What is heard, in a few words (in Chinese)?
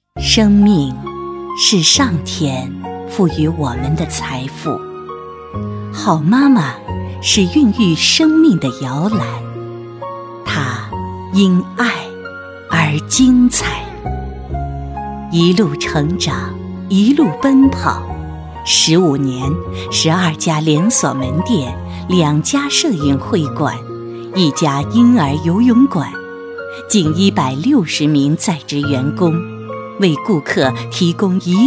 女 亲切大气企业宣传样, 国语女声配音; 全能配音